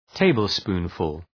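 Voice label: "tablespoonful", English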